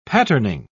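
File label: "patterning", British English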